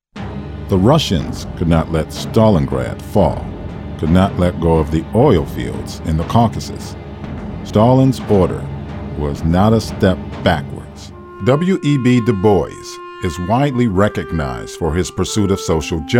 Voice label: Narration